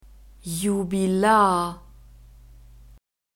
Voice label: "jubilar", German; masculine

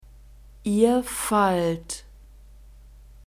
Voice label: "ihr fallt", German; verbs present tense irregular

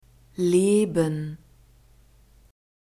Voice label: "leben", german; neuter

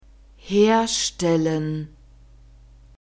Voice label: "herstellen", German

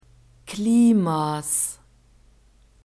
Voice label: "klimas", german

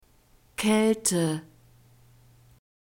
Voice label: "kaelte", German

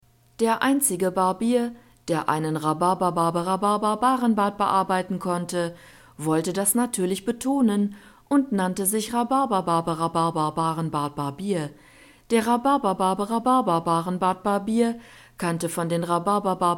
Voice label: tongue twisters, German; rhabarberbarbara

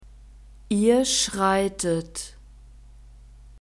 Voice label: "ihr schreitet", German; verbs present tense irregular

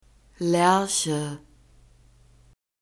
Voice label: "laerche", german; nouns gender feminine

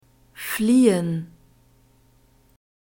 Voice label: "fliehen", German; verbs past tense irregular

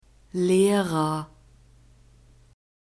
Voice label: "lehrer", german

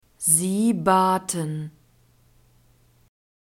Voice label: "sie baten", German; verbs past tense irregular

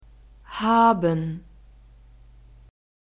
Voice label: "haben", German

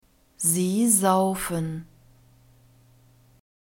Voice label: "sie saufen", German; verbs present tense irregular

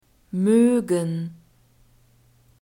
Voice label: "moegen", german; alphabet

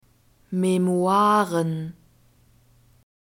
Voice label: "memoiren", german

